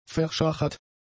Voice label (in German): "verschachert", german; adjectives